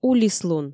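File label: "улислун" Komi, female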